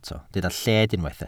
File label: "lled", welsh